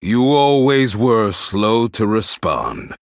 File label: Male Human Idle; Cornelius; Cariel